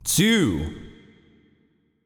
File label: Announcer Count; 2; Feyrazzle